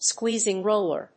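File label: "squeezing roller", English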